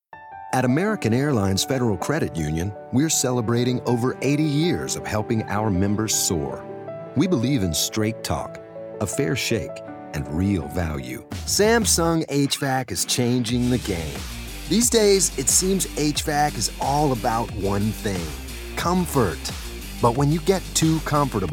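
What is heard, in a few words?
narration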